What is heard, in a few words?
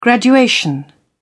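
"graduation", English, British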